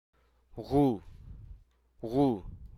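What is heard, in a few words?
Hruso Aka; burial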